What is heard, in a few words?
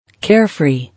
"Carefree", English